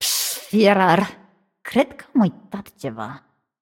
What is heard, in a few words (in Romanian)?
Room2 VO Puzzle View, vo, ro-ro